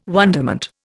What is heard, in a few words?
"wonderment", Indian